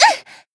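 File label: Voice damaged, Japanese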